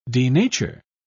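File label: "denature", British English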